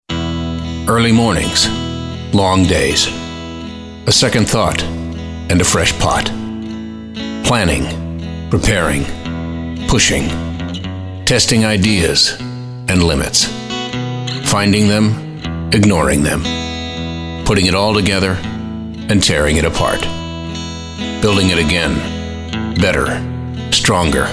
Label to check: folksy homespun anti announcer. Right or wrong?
Right